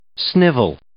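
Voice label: "snivel", English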